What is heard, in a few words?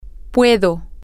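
I can, Spanish, female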